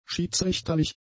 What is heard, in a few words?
"schiedsrichterlich", German; adjectives